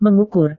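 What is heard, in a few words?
"mengukur", Indonesian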